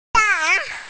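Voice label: "da3", Mandarin Chinese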